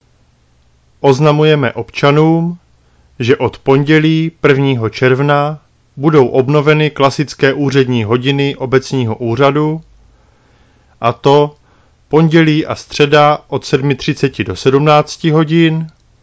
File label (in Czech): Obec úřední hodiny, rozhlas